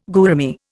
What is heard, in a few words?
"gourami", Indian